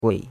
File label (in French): "gui3", chinois; Cours-de-Chinois